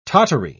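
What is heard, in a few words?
"tottery", English, British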